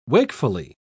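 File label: "wakefully", British English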